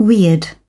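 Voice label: "weird", British English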